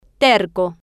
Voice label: "terco", spanish